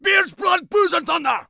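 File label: warcry, English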